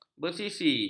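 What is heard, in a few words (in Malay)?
"besisi", Malay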